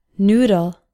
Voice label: "noodle", English